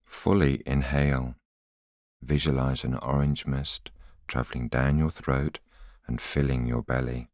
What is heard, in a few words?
voiceover